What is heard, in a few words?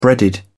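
"breaded", British English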